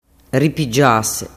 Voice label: "ripigiase", dialettu